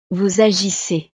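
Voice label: "vous agissez", French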